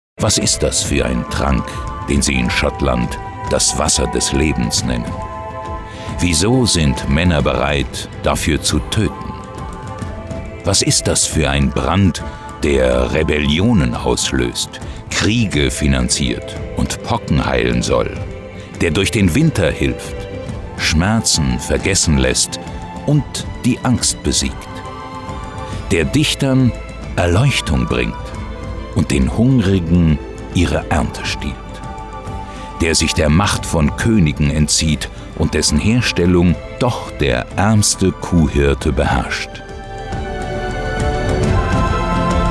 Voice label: emotional strong